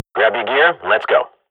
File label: radio bot start, Counter-Strike swat; Global Offensive; player vo